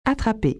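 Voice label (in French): "attraper", French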